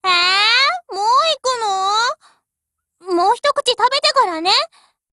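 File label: OPERATION BEGIN Japanese, Voice; GF Alarm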